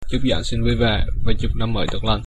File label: christmas vietnamese